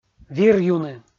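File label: вир юны, Komi, female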